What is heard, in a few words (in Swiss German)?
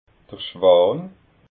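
"schwan", Alsatian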